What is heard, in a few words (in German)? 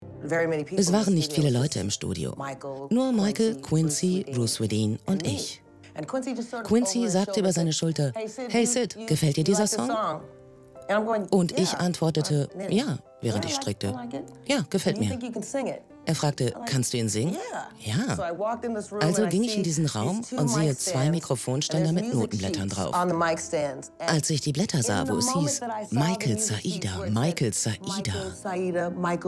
Sprachprobe Dokumentation; BAD25 Das Phänomen Michael Jackson; Spike Lee; VOX